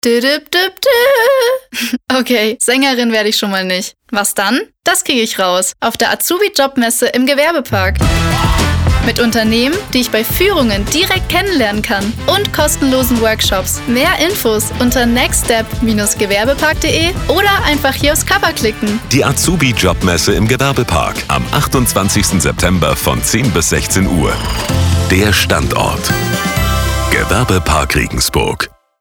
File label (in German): Azubi Jobmesse Spotify Spot; Gewerbepark; Regensburg; corporate sound